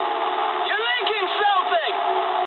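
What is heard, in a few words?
English tank damaged mood low; War Thunder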